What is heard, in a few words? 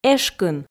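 "эшкын", Komi, female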